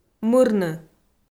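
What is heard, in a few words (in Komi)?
"мырны", Komi, female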